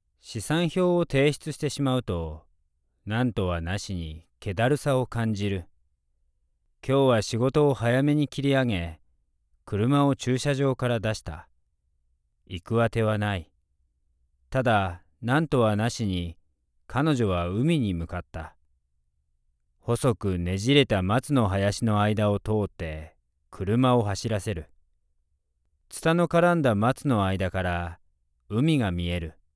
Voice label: japanese audiobooks; Je suis un acteur/artiste vocal japonais basé à New York.